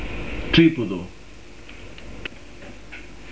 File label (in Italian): "tripudu", pronuncia, dizionario carigliaro